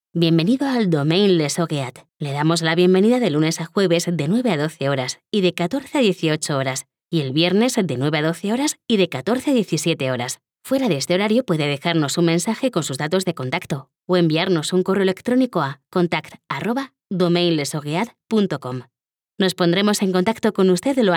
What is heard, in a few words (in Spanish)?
centralita